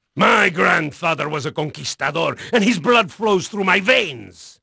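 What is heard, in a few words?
Spanish male, voice; Pirates Of The Caribbean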